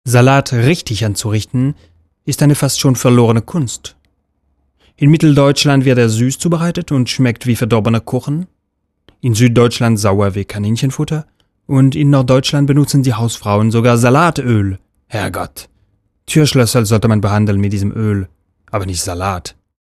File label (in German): german, stimme; deutsch; schauspieler